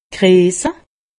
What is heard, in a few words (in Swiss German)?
"greese", alsace; medecine